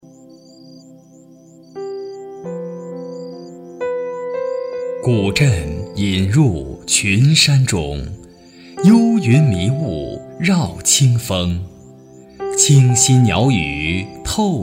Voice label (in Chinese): 男 抒情温馨 散文 风景